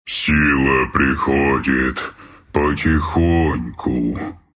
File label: level up, ru; Treant; dota2